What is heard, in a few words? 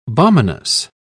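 "balminess", British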